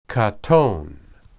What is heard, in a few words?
"qaton", Hebrew